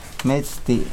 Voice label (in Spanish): Nawat palabras luna; pipil